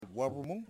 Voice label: "Coconut Husk Shell", Yimas